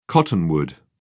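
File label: "cottonwood", British English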